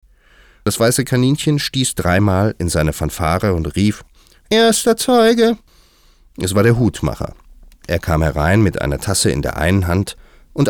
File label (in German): Trickstimmen; Alice im Wunderland